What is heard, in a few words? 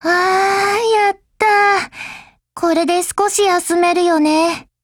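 win Japanese; GepardM1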